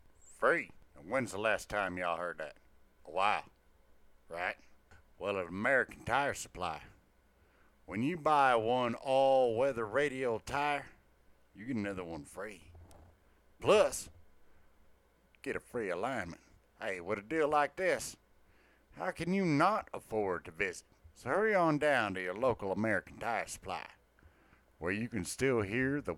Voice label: mock ad; American Tire Supply